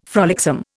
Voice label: "frolicsome", Indian